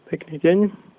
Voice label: have a nice day, slovak